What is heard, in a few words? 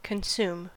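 "consume", US English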